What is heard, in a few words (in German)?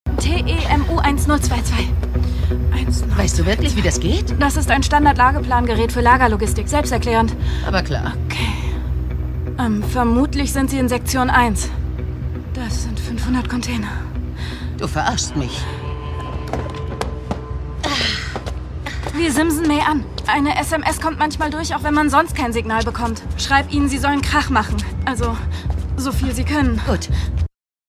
SYNCHRON